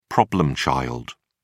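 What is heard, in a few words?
"problem child", British English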